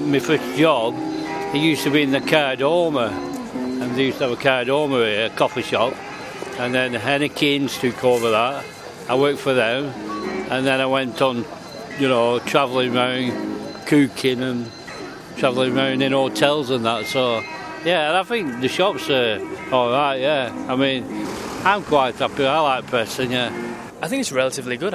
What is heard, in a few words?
shopping vox pop